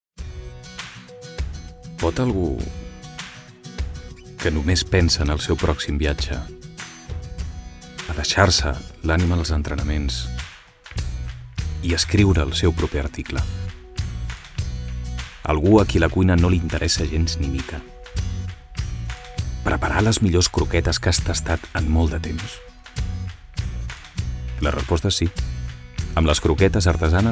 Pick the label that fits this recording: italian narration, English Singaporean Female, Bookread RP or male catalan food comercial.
male catalan food comercial